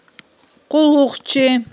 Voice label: "къуллугъчи", Aghul

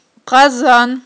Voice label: "къазан", aghul

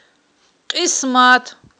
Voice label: "кьисмат", Aghul

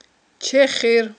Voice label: "чехир", aghul

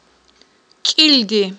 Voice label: "кIилди", aghul

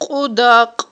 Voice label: "кьудакь", aghul

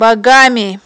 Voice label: "багами", aghul

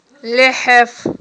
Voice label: "лехIеф", Aghul

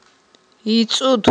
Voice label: "йицIуд", aghul

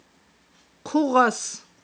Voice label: "хъугъас", aghul

